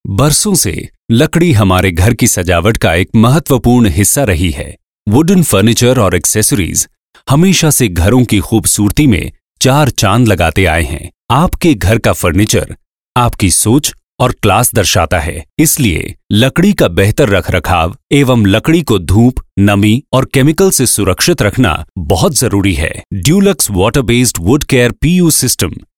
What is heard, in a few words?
hindi, male voice